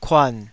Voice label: "kuan3", Taiwanese syllable